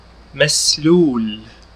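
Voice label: Arabic, Syrian "مسلول"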